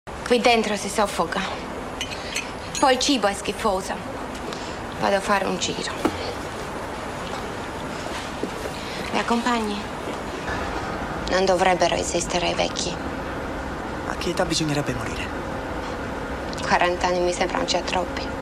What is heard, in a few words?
reel Italienisch; LOFT VOICES